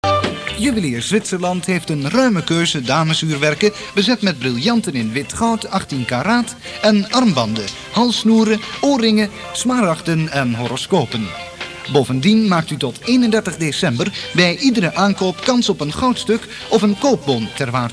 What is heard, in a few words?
commercial